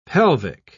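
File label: "pelvic", English, British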